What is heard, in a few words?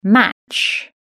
"match", British English